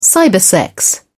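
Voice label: "cybersex", British English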